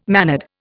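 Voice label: "mannered", Indian English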